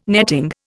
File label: "netting", Indian